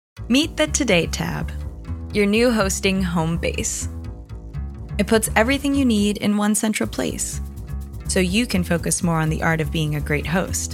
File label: Commercial, vo